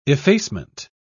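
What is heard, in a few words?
"effacement", British English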